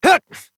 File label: Horse Mount Adult, Dialog; Duviri